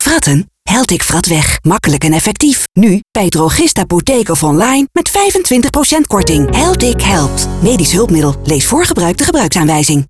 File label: Commercial; HeltiQ Helpt; Media Atelier